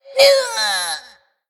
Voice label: Undead Gnome Emote Death; Gnome on the Range